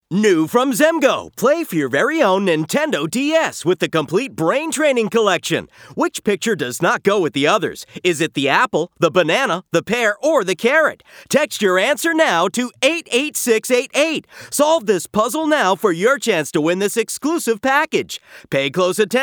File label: Promo; Nintendo DS